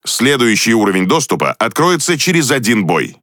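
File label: Russian Speech Access Level Unlock; World Of Warships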